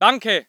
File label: voice ger infantry; Red Orchestra 41-45